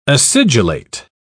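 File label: "acidulate", British English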